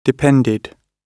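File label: "depended", British English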